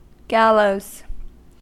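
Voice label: "gallows", American English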